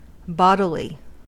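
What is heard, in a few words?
"bodily", English, American accent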